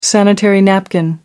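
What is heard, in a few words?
"sanitary napkin", British English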